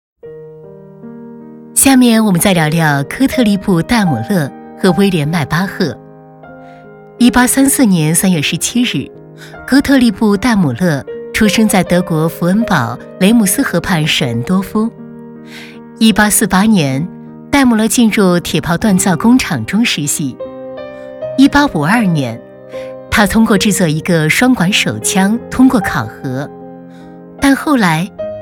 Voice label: 女 人物讲述温馨 品牌历史

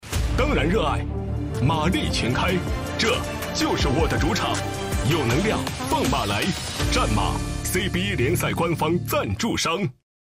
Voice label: 男 央视 广告; CCTV5; 战马广告

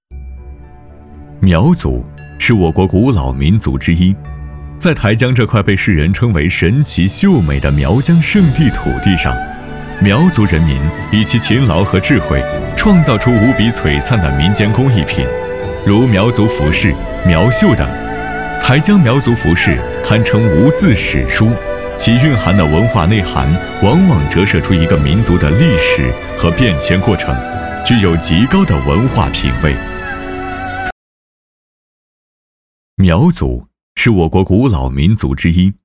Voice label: 男 解说 苗绣; 台江苗绣

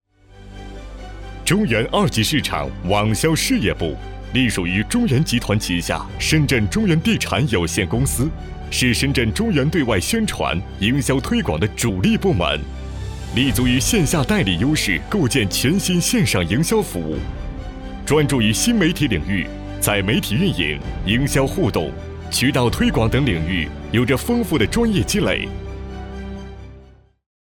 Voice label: 男 宣传 地产; 房产宣传片案例; 中原地产

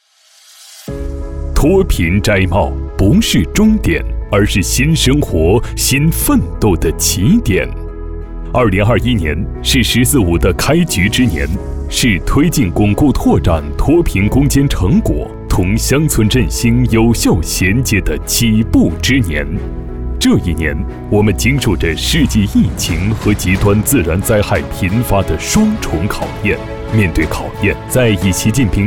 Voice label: 男 专题 脱贫 力度激情

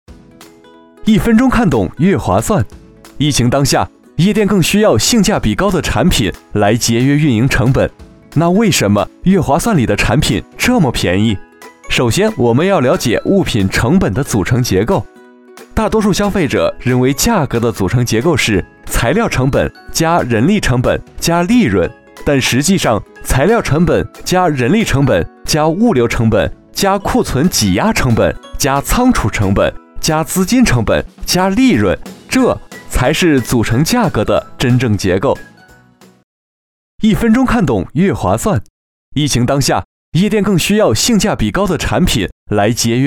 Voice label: 男 轻快广告; 月划算

